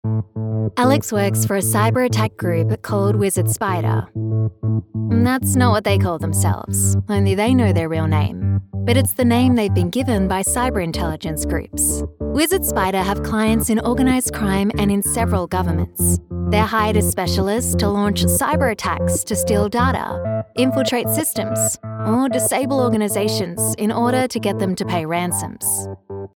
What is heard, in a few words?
english australian documentaries; dubladora experiente